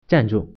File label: "stop", Mandarin